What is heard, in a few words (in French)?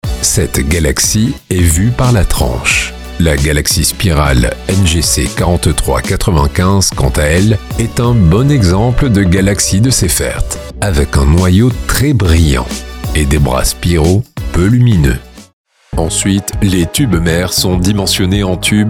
FR; French